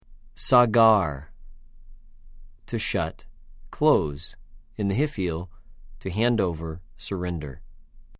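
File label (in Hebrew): "סָגַר", Hebrew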